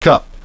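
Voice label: "cup", English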